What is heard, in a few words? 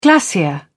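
"glacier", English, British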